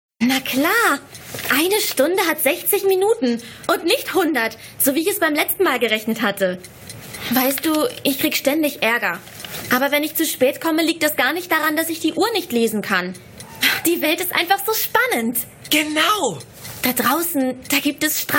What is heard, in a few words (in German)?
Hoerspiel Teenager; LOFT VOICES